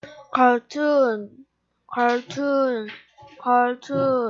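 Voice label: "cartoon", spoken word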